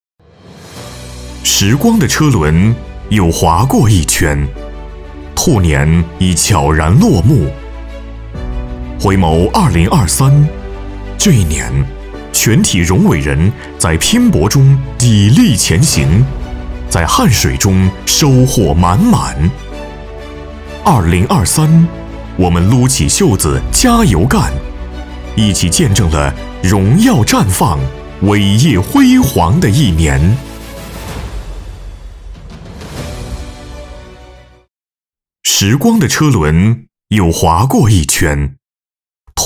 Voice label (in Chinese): 男 专题宣传 大气力度感情 回顾总结